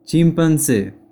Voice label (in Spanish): chimpanzee, spanish